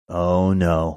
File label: quietly saying oh no